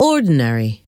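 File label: "ordinary", English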